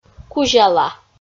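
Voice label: "кузяла", Komi, female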